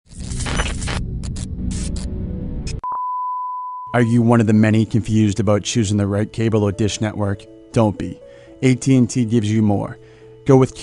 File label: AT&T Broadband Radio Spot Commercial; eurobeat